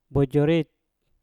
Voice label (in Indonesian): "bojorit" tinggi, Mpur